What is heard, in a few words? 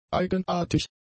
"eigenartig", german; adjectives